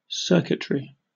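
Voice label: "circuitry", English, British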